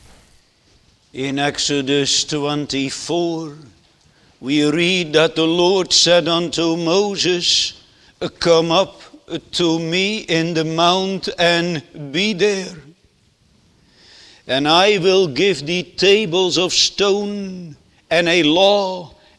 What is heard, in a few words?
Sermon